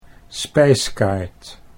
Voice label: "spijskaart", Dutch

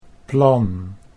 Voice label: "plan", Dutch

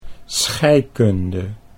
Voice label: "scheikunde", Dutch

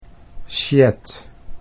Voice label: "sjed", Dutch

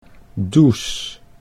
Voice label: "Does", Dutch

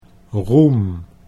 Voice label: "roem", Dutch

